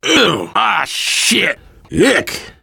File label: reaction boomer vomit; Left 4 Dead 2; survivor